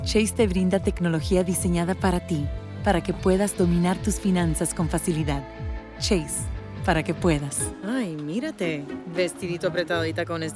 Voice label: Spanish Female